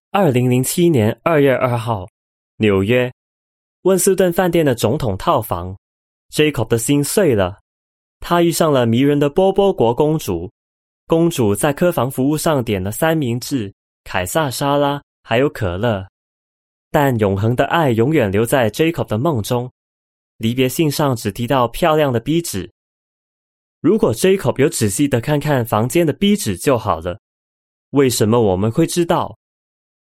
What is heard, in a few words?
chinese mandarin commercial